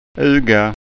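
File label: "uga", Scanian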